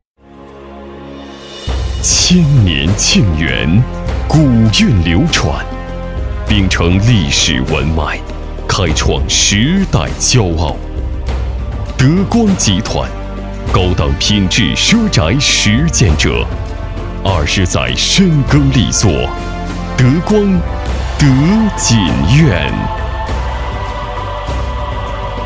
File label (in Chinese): 男 专题 大气舒缓; 德光·德锦苑